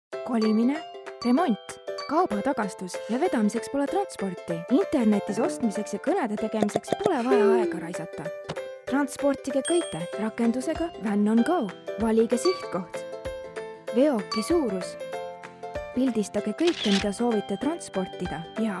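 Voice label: Estonian, female; estonian voice over talent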